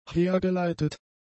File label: "hergeleitet", German; adjectives